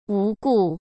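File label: "无故", Mandarin Chinese; hsk; chinese-flashcards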